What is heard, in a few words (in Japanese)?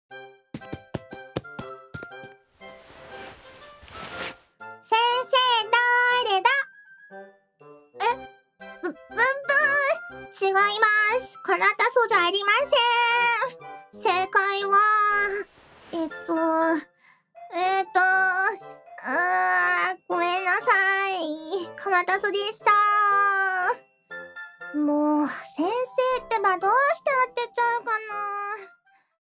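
ボイス（日本語）; ホロライブわんぱく幼稚園ボイス; 天音かなた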